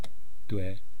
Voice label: "twe", East Cree syllabics; language

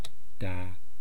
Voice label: "taa", East Cree syllabics

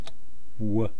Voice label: "wu", East Cree syllabics; language

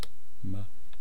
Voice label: "ma", East Cree syllabics

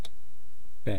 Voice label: "pe", east cree syllabics

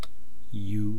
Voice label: "yuu", East Cree syllabics; language

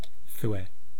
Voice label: "thwe", East Cree syllabics

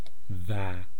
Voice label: "vaa", east cree syllabics

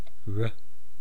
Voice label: "ra", East Cree syllabics

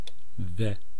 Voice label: "ve", East Cree syllabics; language

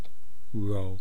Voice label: "rwaa", east cree syllabics

